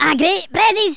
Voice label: "Drop", Angry Scots; Worms Armageddon